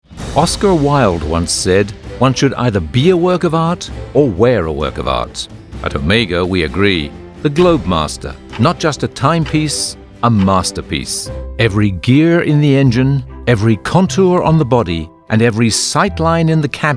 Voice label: Commercial

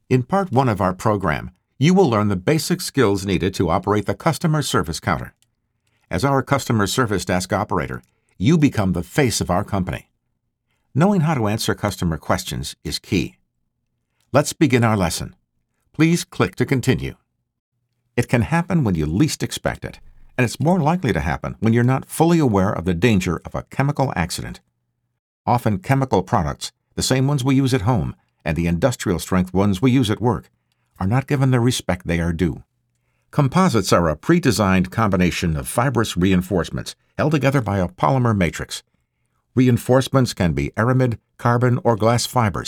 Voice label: E-Learning

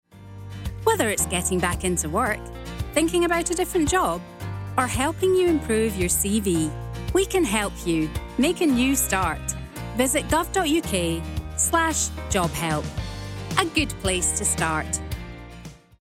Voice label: Commercial; GOV.UK

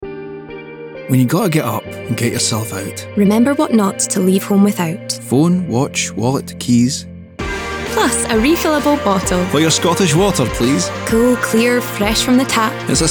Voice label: Commercial; Scottish Water

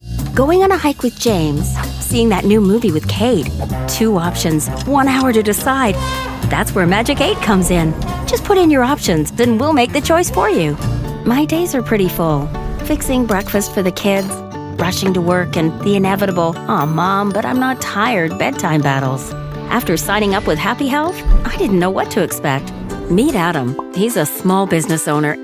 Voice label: Explainer

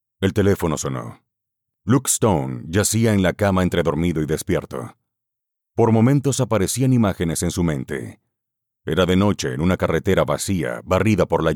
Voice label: Latin American Neutral Spanish Audiobook Thriller

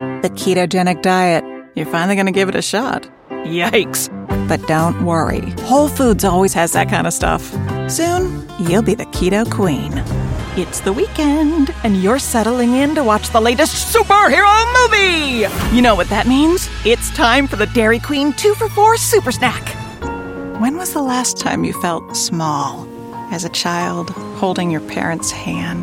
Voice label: Commercial

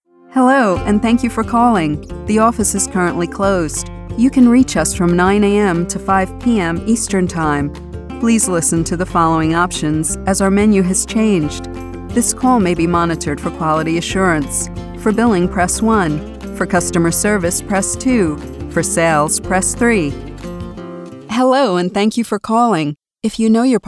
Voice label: IVR

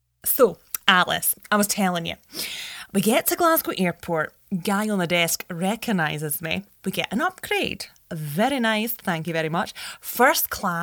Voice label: Comedy Monologue Scottish

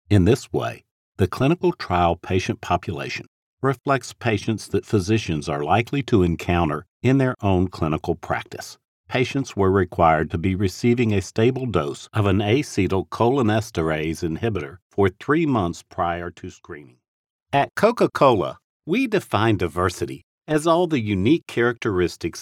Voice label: Elearning

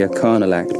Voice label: "carnal", "肉欲の", "act"

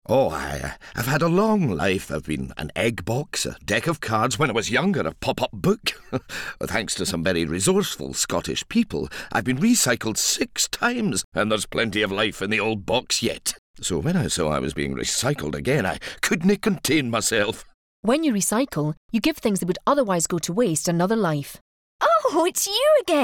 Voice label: Ad; ScotGov

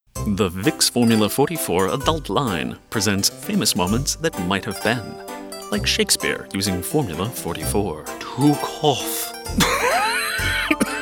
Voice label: Commercial

